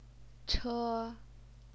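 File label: "che", first tone, Chinese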